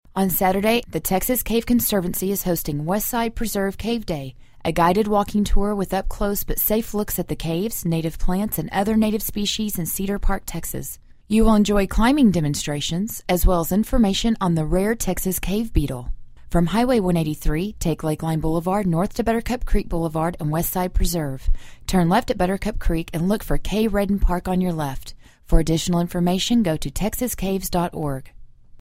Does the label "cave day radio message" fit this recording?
Yes